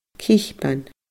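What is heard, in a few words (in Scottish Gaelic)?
"cipean", Scottish Gaelic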